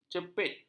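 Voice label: "cepit", Malay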